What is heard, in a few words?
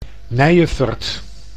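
"Nijefurd", Dutch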